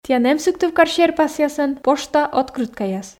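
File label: "тіян эм сыктывкар серпасъясӧн пошта открыткаяс", Komi, female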